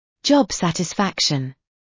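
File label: "job satisfaction" British; Business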